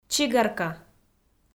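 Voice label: "чигарка", Komi, female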